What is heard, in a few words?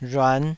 "rhuan5", taiwanese